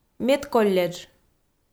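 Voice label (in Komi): "медколледж", Komi, female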